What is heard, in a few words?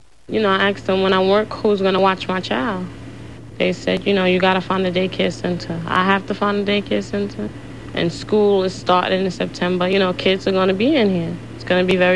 AAE Brooklyn, African American